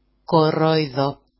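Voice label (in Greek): "koroido", Greek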